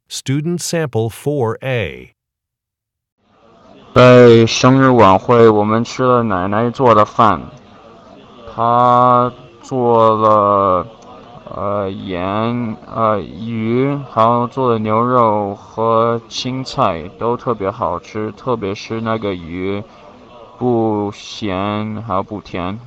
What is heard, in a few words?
chinese conversation